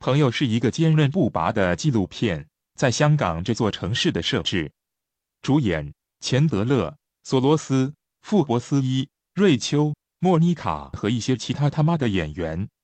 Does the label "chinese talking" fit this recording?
Yes